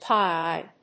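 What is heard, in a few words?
"pie-eyed", English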